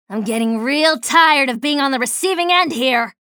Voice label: chatter negative; slash; respawn

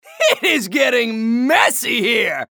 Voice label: chatter neutral; anarki

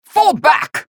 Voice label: chatter negative; galena; respawn

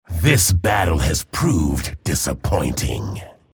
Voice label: chatter negative; respawn

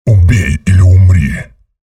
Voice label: chatter neutral; visor

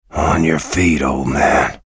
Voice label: chatter negative; bj blazkowicz; respawn

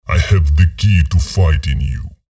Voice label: chatter neutral, en; visor

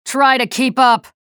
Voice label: chatter positive; nyx; frag

